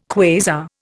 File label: "quasar", Indian